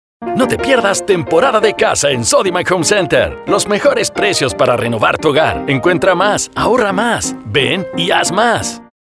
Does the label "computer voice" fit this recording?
No